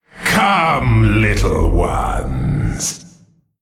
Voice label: Male Giant Play